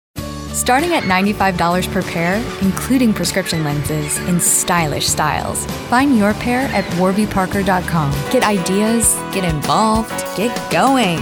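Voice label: Commercial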